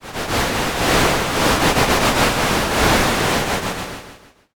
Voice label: whisper